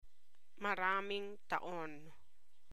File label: Tagalog